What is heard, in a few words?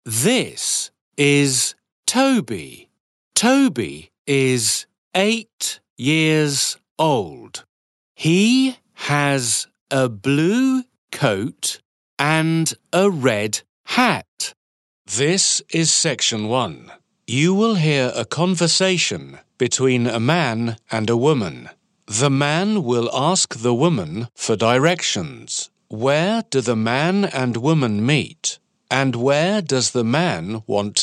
narration